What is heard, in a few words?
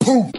"poof" male; ooh ahh; human